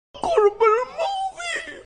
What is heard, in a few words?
gibberish